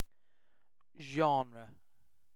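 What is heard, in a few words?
"genre", British English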